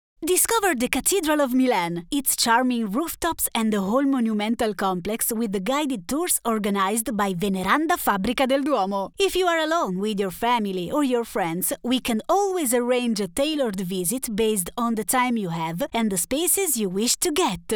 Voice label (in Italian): inglese